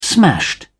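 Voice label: "smashed", English, British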